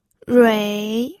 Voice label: "rui3", pinyin

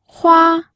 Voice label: "hua1", pinyin audio

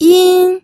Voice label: "yin1", pinyin

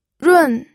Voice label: "run4", pinyin

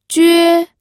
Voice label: "jue1", pinyin

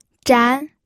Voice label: "zhan2", pinyin

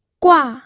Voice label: "gua4", pinyin audio

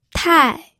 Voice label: "tai4", pinyin

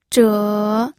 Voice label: "zhe3", pinyin

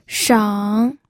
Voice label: "sheng3", pinyin

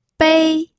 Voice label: "bei1", pinyin

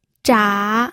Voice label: "zha3", pinyin

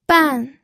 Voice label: "ban4", pinyin

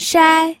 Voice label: "shai1", pinyin

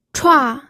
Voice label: "chua4", pinyin

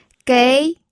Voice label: "gei2", pinyin audio

